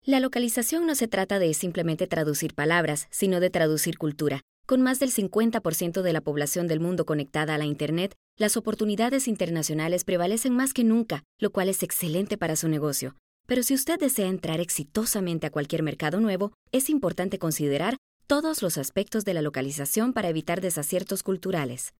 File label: spanish neutral female